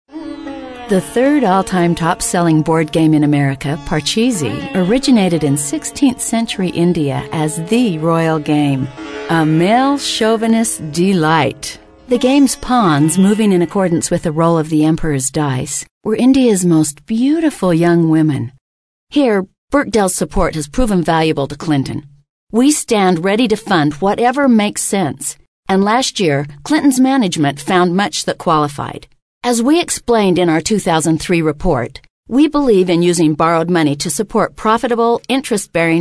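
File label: narration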